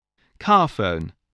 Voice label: "carphone", spelling